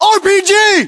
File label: US threat rpg, battlechatter, english